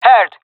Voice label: affirmation, jungle fem; vo; agents; Source Model Packs; Counter Strike Model Pack; CS2; jungle fem epic